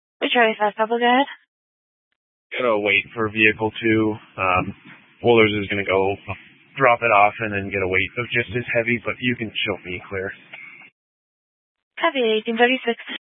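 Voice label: Dispatch, Scanner audio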